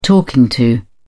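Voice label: "talking to", British English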